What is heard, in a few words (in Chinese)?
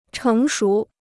"成熟", Chinese